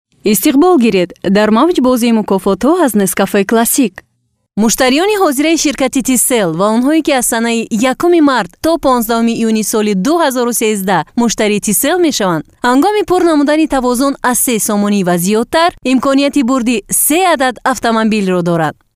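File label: Tajikistan female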